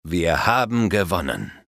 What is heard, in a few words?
We Succeeded, Speech German Advisors; Civ5